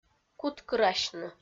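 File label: "куткырасьны", Komi, female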